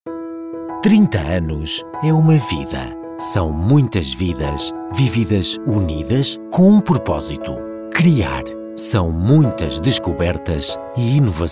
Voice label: Corporate